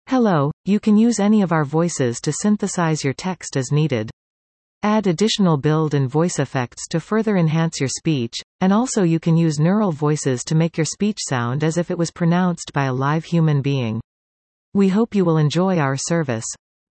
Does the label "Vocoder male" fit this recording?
No